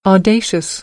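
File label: "audacious", American English; AmericanBritish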